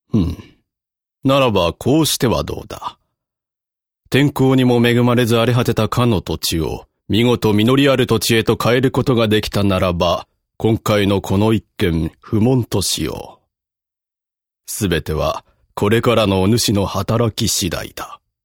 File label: seikaku m ojisama